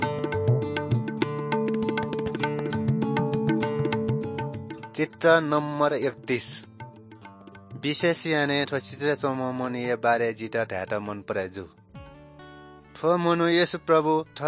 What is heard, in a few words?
good news newari balami